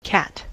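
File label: "cat", US English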